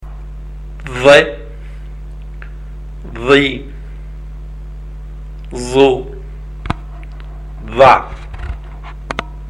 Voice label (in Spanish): THADUN CON VOCALES, ARABE; ARABE A1; ALFABETO; LETRAS CON VOCALES